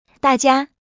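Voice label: "dajia", Mandarin Chinese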